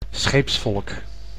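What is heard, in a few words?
"scheepsvolk", Dutch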